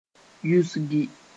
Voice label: "yusgi", moore

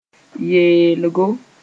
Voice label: "yeelgo", moore

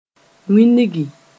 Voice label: "wĩnigi", moore

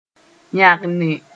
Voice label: "yãgne", moore

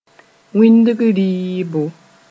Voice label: "wĩndg rɩɩbo", moore

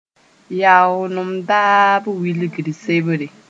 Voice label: "yaoolem daab wilgr sebre", moore

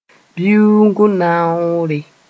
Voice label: "bɩʋʋng naoore", moore; cycle

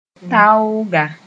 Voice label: "taooga", moore

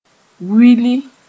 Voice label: "wili", Moore

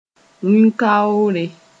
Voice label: "wĩn-kaoore", moore

